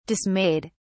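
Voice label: "dismayed", English, British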